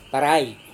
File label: "tarai", Serewen